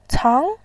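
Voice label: "cang", Mandarin Chinese, tone 2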